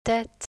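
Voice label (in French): "tete", French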